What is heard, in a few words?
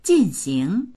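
"jin4xing2", Chinese; 进行; 進行する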